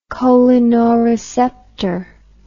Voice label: "cholinoreceptor", English